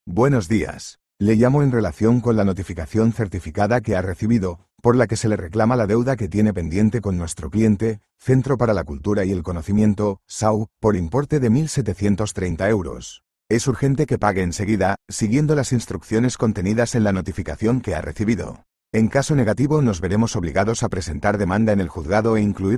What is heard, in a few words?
audio call